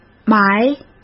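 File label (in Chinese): "埋", Mandarin Chinese; hsk; chinese flashcards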